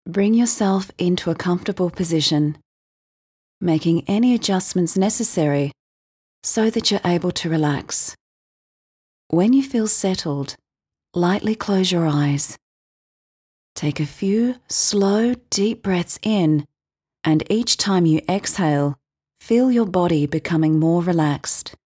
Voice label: Mindfulness Meditation

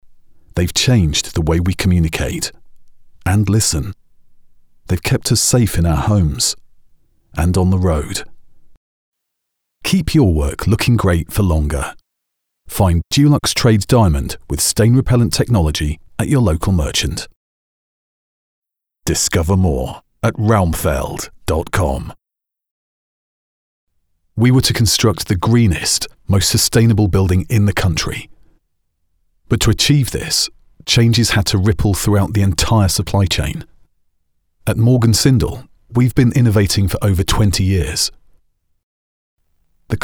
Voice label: Dry

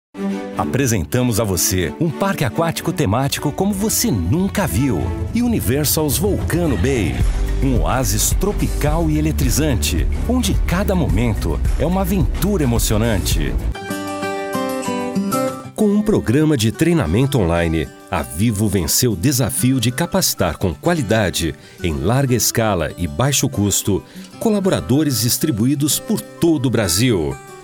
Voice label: Brazilian Portuguese VO

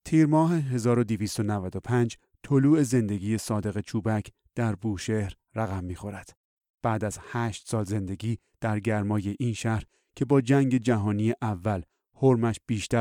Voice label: Farsi Narration

